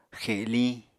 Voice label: "xeliñ", Apatani